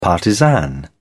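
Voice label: "partisan", British English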